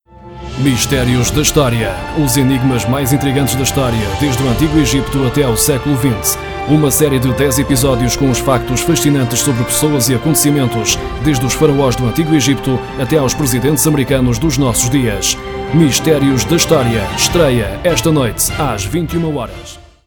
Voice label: Portuguese, Portugal